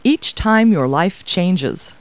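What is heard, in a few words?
woman; Talking Clip Words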